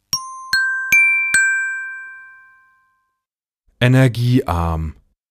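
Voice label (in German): "energiearm", German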